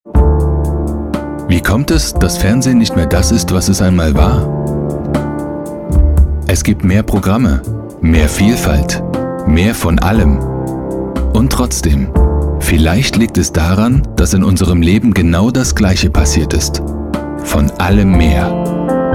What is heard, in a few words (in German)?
Werbung; Premiere